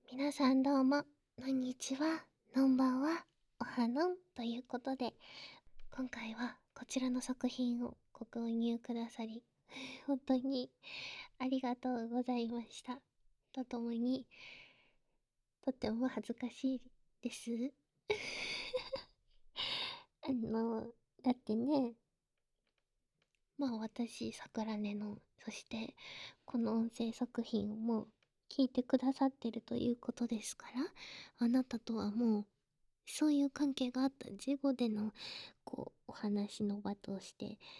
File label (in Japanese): まったりフリートーク